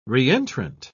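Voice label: "re-entrant", British English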